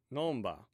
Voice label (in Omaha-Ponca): "nonba", Omaha language